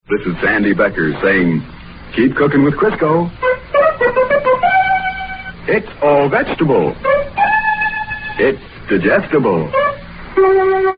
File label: vintage commercials crisco